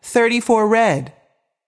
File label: voice over, roulette; frenchroulette3